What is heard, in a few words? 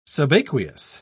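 "subaqueous", British English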